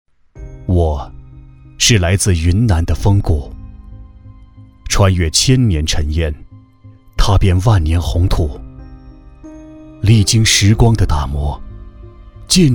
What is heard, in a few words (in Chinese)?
大气独白; 云南云茶